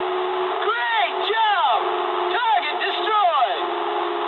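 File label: English bomb success mood med; War Thunder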